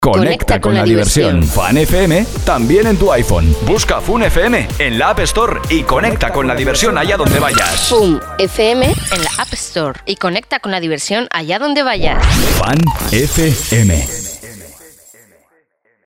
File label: promo fun fm app store